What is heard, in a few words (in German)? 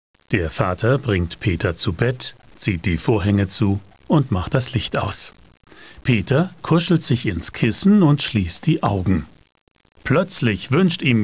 kinderhoerspiel